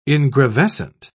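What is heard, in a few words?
"ingravescent", British English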